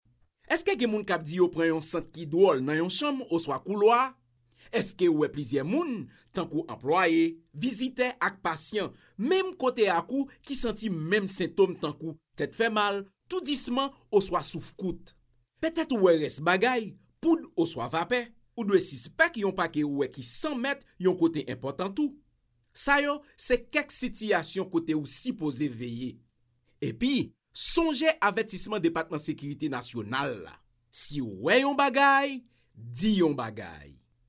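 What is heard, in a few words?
Creole French Haiti, male, voice over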